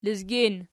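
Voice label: "lezgin" nominative, Archi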